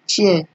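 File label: "chair", Nigerian Pidgin, female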